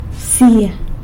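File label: "bsia" eagle, San Lucas Quiaviní Zapotec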